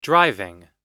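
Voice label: "driving", American English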